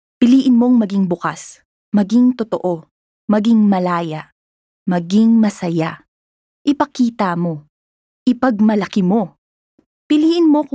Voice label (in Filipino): Taglalog (Conversational, Inspiring)